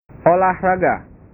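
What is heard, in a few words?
"olahraga", Indonesian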